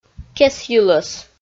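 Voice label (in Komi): "кесйылӧс", Komi, female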